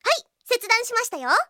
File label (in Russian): zvuki s golosom iaponskoi anime devushki